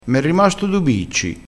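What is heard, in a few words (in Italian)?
"bicci", dialettale crespinese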